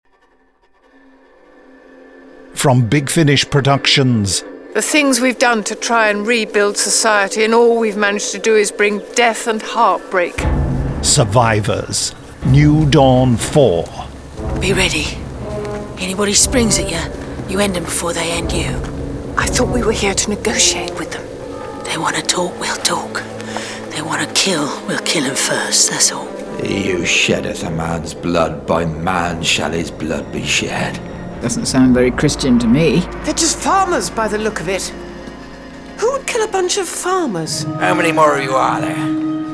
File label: trailer; new dawn 4